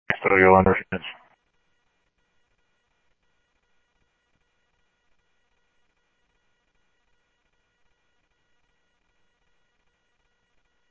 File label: Police, scanner audio trunk; H-Scottsdale